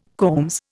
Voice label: "combs", Indian

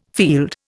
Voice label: "field", Indian

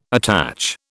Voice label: "attach", British

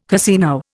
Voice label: "casino", Indian

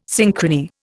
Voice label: "synchrony", Indian

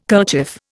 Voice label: "kerchief", Indian

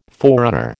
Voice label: "forerunner", American

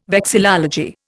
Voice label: "vexillology", Indian

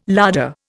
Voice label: "larder", Indian

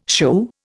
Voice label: "shoo", Indian

